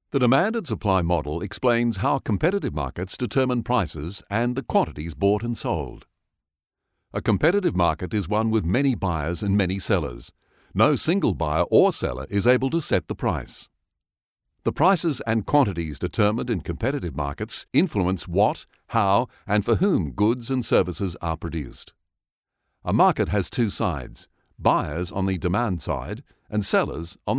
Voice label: ELearning